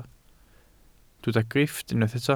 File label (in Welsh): "grifft", Welsh